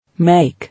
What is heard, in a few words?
"Make", English